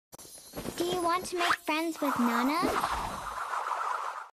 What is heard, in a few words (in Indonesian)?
Ringtone Suara; Mobile Legends